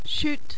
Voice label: "chute", French

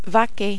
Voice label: "vaquer", French

